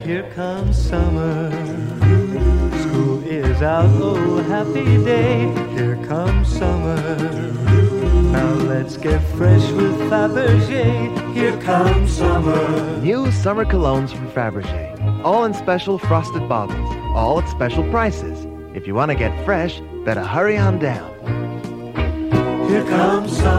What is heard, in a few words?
Radio Advert; Radio Hallam; Faberge